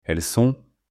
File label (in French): "ELLES SONT", French; MALE